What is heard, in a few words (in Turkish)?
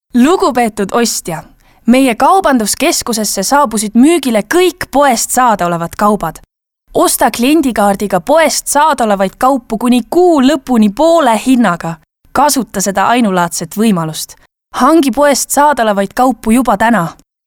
Estonca Kadin, seslendirme